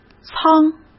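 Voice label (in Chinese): "舱", Mandarin Chinese; hsk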